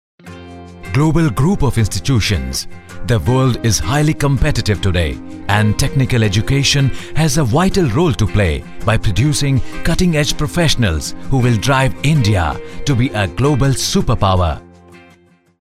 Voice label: MVO; male voice